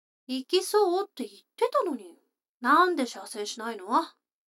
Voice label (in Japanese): 女の子 台詞